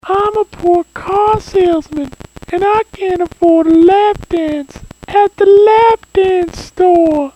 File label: car salesman